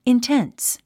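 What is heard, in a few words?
"intense", English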